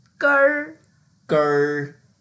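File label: "ger1", Mandarin Chinese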